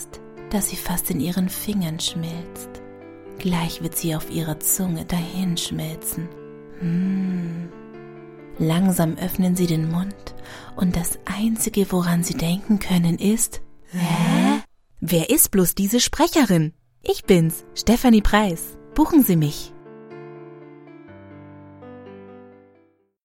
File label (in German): Werbung Schokolade